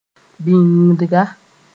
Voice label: "bĩngdga", Mooré; binder